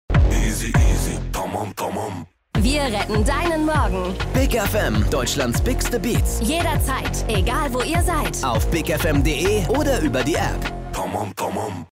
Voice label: werbung tv spot; bigfm

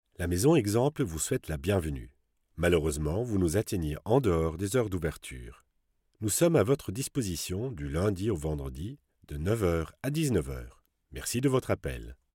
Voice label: fr telefonansage

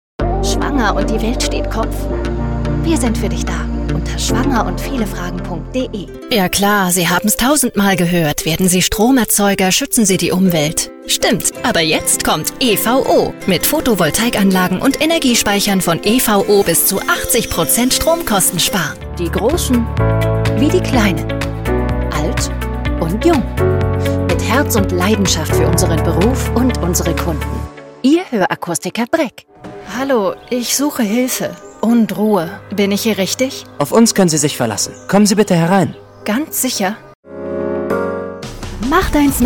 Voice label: werbung mix

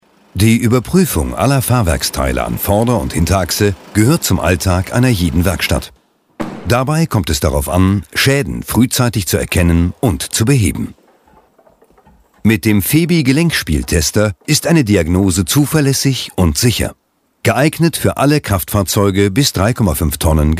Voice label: produktfilm; febi; Muttersprache Deutsch

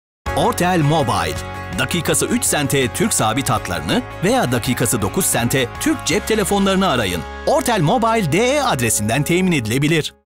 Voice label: tv spot tuerkisch; ortel mobile

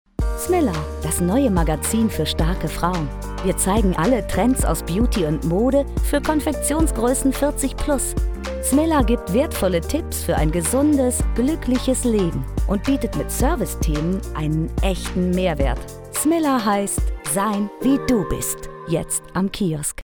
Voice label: werbung; smilla; Muttersprache Deutsch

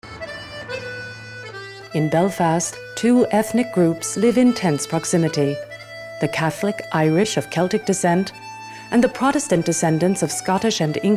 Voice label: filmkommentar

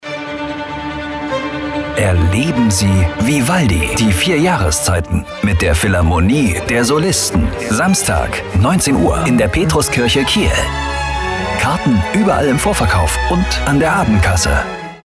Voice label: funkspot; philharmonie der solisten kiel samstag 19uhr; Muttersprache Deutsch

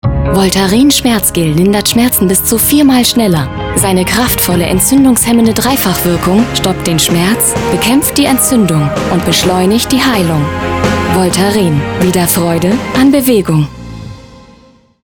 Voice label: nachgesprochen werbung

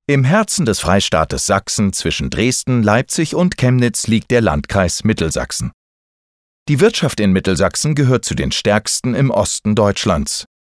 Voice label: vo imagefilm; mittelsachsen

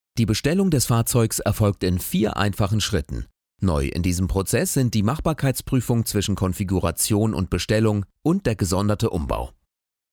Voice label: stimmprobe e-learning

